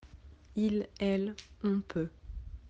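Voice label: "pouvoir", French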